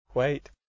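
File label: white, Ulster English, Antrim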